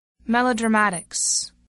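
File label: "melodramatics", English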